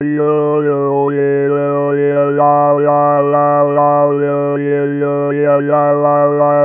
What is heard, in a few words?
resynth Speech